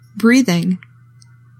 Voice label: "BREATHING", English; 3000 words

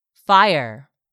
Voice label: "FIRE", English; 3000 words

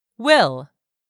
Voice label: "will", English; 3000 words

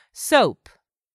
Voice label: "SOAP", english; 3000 words

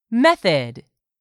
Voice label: "method", English; 3000 words

